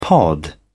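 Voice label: "pod", British English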